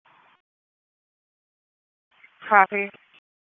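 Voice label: Police Southeast District Dispatch; Raleigh; wake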